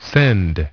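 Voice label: "send", English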